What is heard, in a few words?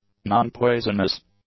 "non-poisonous", english; adjectives